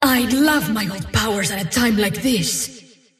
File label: teleport pain; dota; dawnbreaker; valora